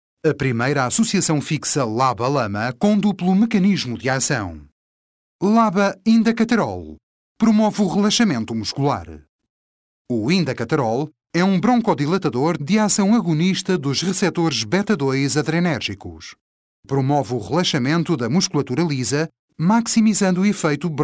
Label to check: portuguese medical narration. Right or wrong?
Right